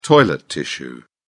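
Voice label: "toilet tissue", British English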